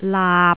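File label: "laap6", Jyutping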